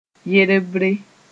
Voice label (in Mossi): "yerbre", moore; collar-bone